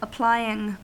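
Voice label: "applying", US English; pronunciations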